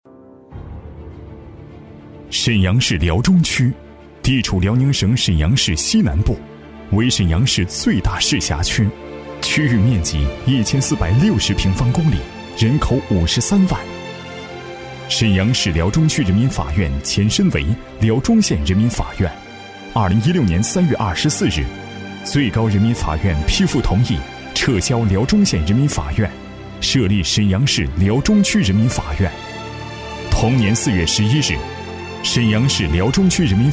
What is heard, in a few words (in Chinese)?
男 国 大音 样音; 沈阳市辽中法院